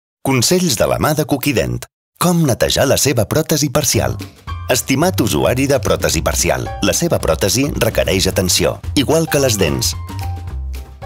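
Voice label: Spanish catalan elearning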